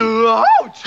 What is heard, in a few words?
ouch cartoon; ooh ahh; ow ouch